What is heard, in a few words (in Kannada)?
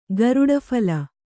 "ಗರುಡಫಲ", Kannada; garuḍa phala; noun